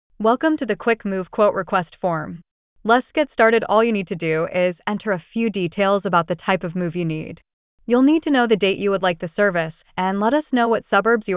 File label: ANNOUNCEMENT; removalists cammeray